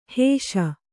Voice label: "ಹಿಶೆ", Kannada

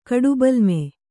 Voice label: "ಕಡುಬಲ್ಮೆ", Kannada

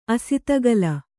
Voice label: "ಅಸಿತಗಲ", kannada

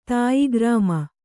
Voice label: "ತಾಯಿಗ್ರಾಮ", kannada

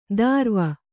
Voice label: "ದಾರ್ವ", Kannada

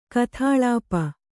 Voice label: "ಗತಲೇಪ", Kannada

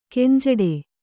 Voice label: "ಗುಂಜಾಡು", Kannada

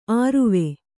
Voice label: "ಅರುವೆ", Kannada

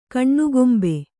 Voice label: "ಕಣ್ಣುಗೊಂಬೆ", Kannada

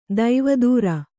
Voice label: "ದೇವದಾರು", Kannada

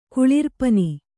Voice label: "ಕುಳಿರ್ಪನಿ", Kannada

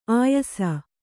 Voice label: "ಆಯಸ", Kannada

